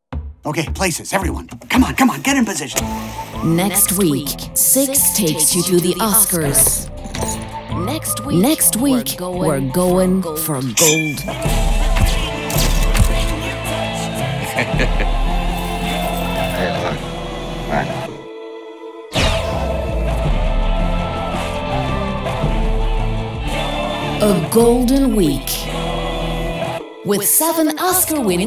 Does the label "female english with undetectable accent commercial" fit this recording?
No